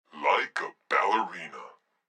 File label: phone good balerina; Blind Drive